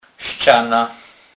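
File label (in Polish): "scana", Kurpie dialect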